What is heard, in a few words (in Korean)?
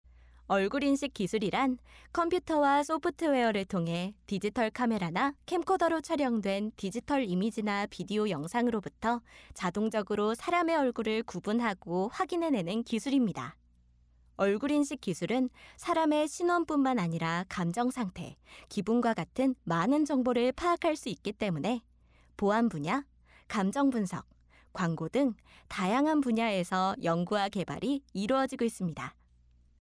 정보전달 밝은